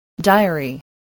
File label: "diary", english spelling